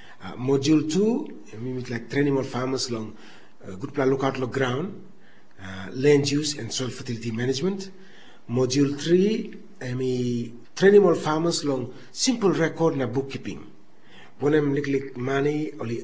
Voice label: Tok Pisin; Mama Graun